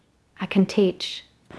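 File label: Voice Acting Spanish Female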